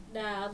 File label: "da", Dinka